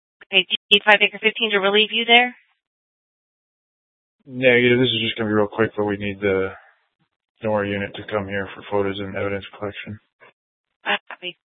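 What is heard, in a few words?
police dispatch, scanner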